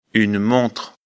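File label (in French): "montre", French; afrikalan; lecture fr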